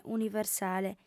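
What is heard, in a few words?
"universale", Sardinian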